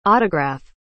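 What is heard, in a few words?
"autograph", English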